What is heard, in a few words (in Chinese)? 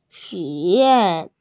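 "xu3yuan4", Mandarin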